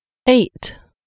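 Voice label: "eight", English; numeri in inglese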